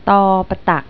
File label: "patak", Thai